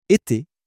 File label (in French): "été", French